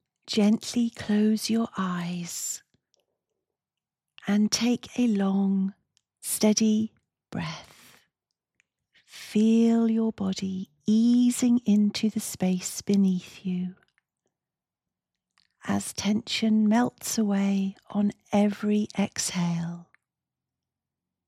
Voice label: MEDITATION Calm Mindfulness, voiceovers